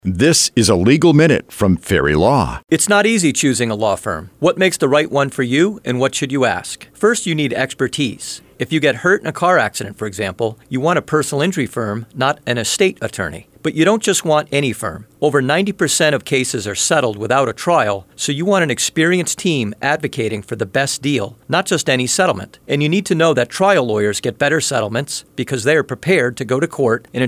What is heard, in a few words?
Radio ad; Legal Minute